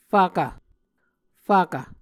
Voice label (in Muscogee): "fvkv", Muscogee